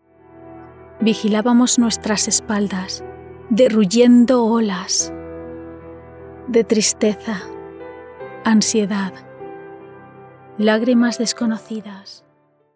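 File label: Spanish Spain, General; GoLocalise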